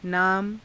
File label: "naama", Hindi